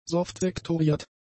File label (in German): "softsektoriert", german; adjectives